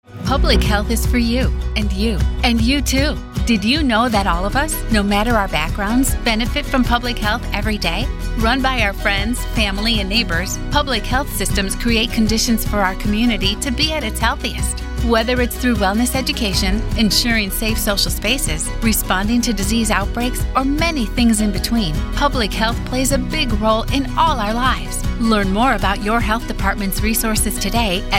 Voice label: English